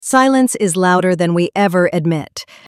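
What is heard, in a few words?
voice sample, Young adult woman with a confident and warm, mature quality and a reassuring, professional tone.; Sarah; Shot Studio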